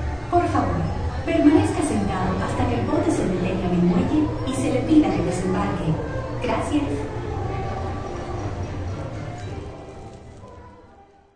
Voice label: Boat Ride Safty, Recorded safety announcement in English and Spanish at end of wa; Recorded safety announcement in English and Spanish at end of water ride